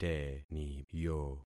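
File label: "teniyo", concatenated syllables; Affixing experiment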